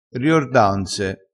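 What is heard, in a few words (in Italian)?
"riordanze", vocabolario dialettale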